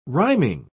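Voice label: "rhyming", British English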